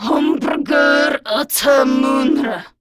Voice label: Patrol, Grineer Female; Warframe; Battle Barks